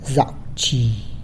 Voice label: "Zac wzhi", Good afternoon

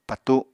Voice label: "patu7", Apatani

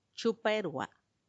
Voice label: "chuppaerua", twentytwo, macuiltianguis; veintidos

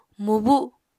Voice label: "mubu", Apatani

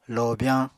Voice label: "lobyañ", Apatani

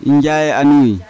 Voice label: injaya anui older father, Yawa

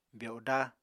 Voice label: "byodá", Apatani

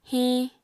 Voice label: "hiñ", apatani

